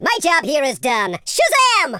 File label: "victory", super hero speech